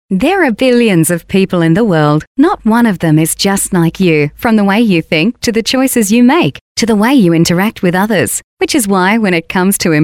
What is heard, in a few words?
female talent corporate